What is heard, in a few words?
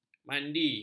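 "mandi", Malay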